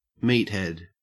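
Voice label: "meathead", Australian English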